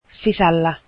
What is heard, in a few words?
finnish inside